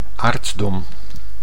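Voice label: "aartsdom", Dutch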